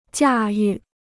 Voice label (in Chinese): "驾驭", Chinese